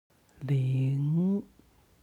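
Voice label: "ling", Chinese; 零; HSK Level One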